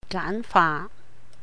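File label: "gáan fa", Cantonese; simplify; 簡化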